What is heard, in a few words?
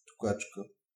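guineafowl, Bulgarian; animals; birds; tuxpaint-stamps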